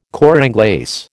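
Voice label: "cor anglais", American English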